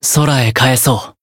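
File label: Voice finish Japanese; dunarith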